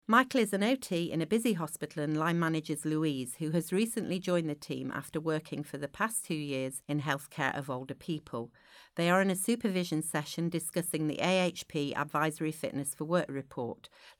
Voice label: narration; Allied Health Professional (AHP) Health and Work Report